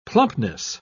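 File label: "plumpness", British English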